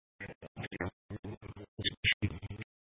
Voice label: Sheriff Dispatch; Mesa County